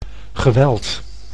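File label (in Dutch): "geweld", Dutch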